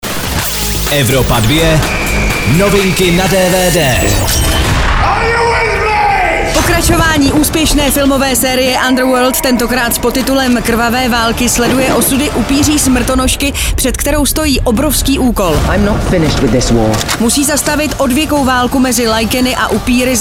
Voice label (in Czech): dvd news; evropa2; underworld krvave valky